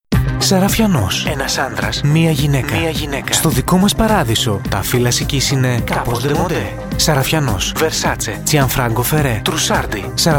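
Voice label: GRE, Greek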